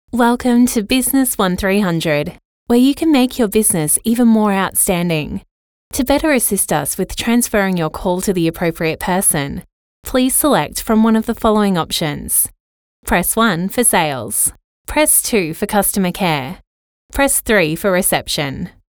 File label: Natural